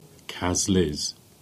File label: "Coddles", Cornish; place names